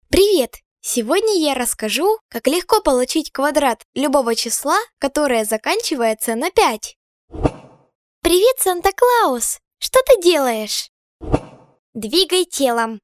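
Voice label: child female russian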